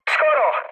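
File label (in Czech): Czech bomb failure, mood low; War Thunder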